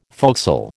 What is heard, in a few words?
"forecastle", American